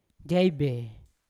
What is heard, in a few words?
"jaibe", Yawa; blue; unat